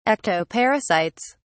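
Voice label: "ectoparasites", British English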